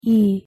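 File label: "ㅣ", Korean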